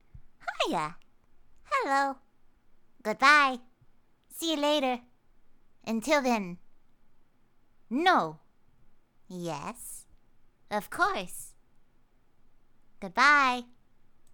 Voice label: Small Village Hobbit Elf Girl Rpg Greeting Goodbye